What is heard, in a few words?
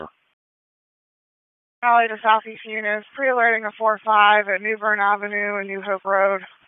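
Raleigh Police Southeast District Dispatch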